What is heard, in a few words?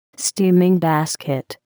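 "steaming basket", English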